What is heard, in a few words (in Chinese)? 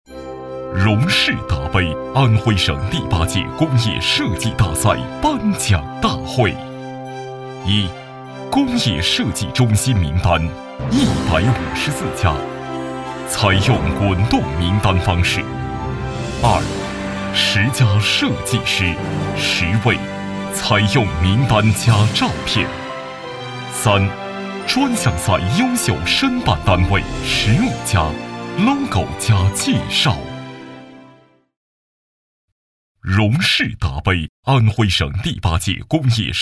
男 颁奖类奖项